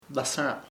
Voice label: son in law yerno, chamacoco